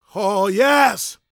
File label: preachin, gospel preacher